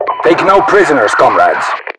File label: boost, voiceovers RU; mpvoice